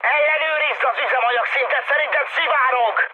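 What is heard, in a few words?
Hungarian tank damaged mood high, speech; War Thunder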